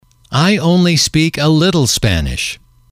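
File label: "I only speak a little language", English, male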